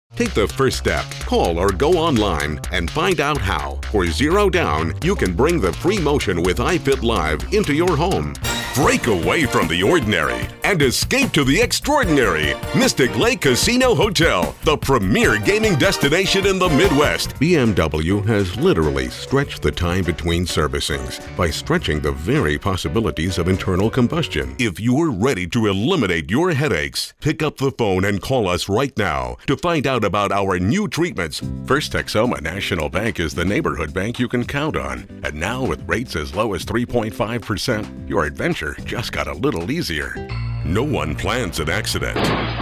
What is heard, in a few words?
commercials